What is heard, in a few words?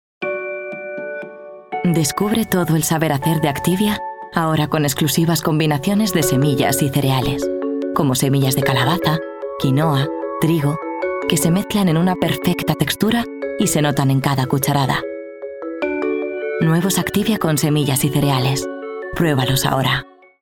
female, Spanish Castilian TV commercial; activia